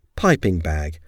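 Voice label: "piping bag", English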